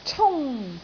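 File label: "cung1", Cantonese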